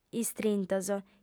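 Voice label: "istrintos", Sardinian, female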